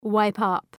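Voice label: "wipe up", English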